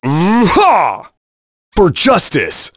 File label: Vox Victory, en; kingsraid; voices heroes